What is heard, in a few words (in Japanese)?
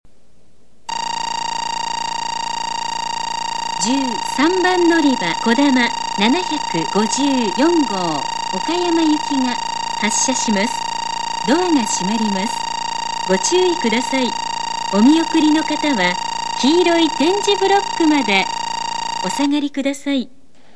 発車放送（こだま754号・岡山）; 小倉駅; 山陽新幹線; 14 山陽新幹線／広島・新大阪・東京 方面